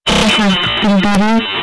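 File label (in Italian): "truffa", italiano; truffa? Senti Re!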